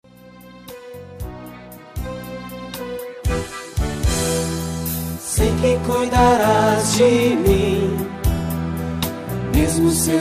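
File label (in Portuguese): religiosa masc, Telemensagens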